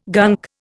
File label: "gunk", Indian